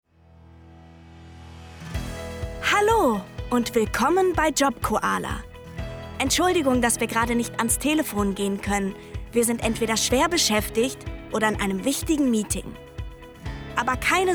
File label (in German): Telefonschleife; Jobkoala